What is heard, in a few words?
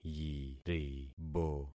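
"yiribo", concatenated syllables; Affixing experiment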